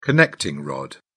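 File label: "connecting rod", British English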